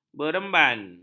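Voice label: "beremban", Malay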